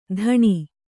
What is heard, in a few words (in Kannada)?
"ಧಣಿ", kannada